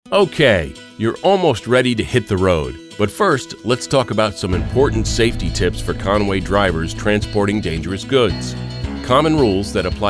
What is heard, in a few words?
ELearning